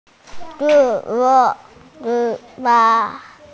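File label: "shu1 bao1", Mandarin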